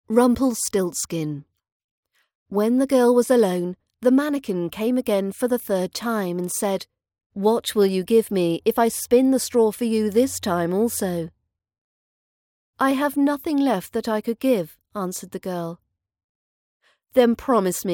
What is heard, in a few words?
british accent pronunciation practice; rumplestiltskin part 2